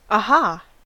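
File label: "aha", American English; pronunciations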